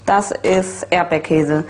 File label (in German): "erdbeerkaese", German